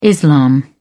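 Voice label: "islam", British English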